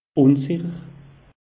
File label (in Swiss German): "unsicher", alsace; meteo